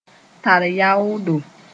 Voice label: "tar yaoodo", moore

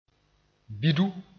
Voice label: "bidu", moore

